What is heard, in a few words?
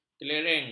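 "kelereng", Malay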